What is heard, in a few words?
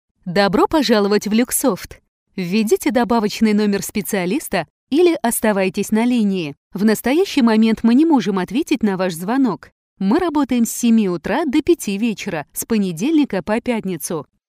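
russian interactive voice response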